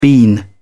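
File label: "bean", English, British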